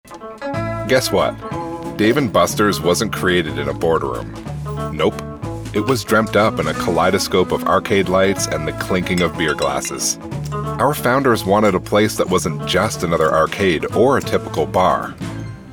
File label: eLearning; Dave and Busters